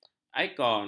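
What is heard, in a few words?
"ikon", Malay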